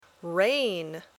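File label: "rain", English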